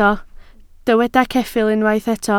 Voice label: "ceffyl", Welsh